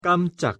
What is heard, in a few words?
"깜짝" Korean pronunciation